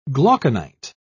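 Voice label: "glauconite", British English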